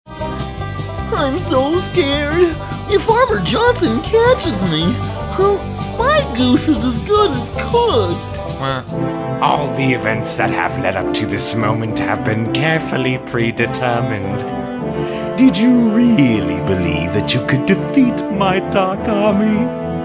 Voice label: Voiceover